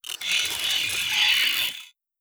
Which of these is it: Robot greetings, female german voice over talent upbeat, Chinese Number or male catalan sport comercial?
Robot greetings